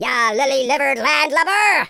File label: coward, Pirate